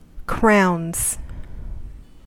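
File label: "crowns", American English; pronunciations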